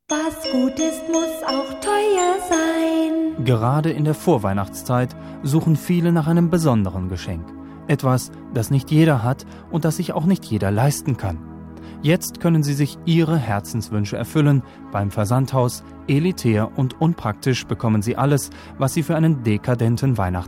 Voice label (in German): Werbung Chinchilla 3 lagig